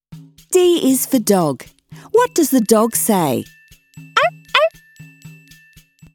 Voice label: Ebook